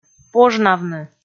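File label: "пожнавны", Komi, female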